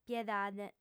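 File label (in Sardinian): "piedade", female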